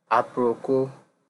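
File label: "aproko", Nigerian Pidgin, male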